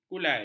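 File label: "kulai", Malay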